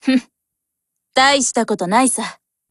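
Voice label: Japanese, Voice; GF Alarm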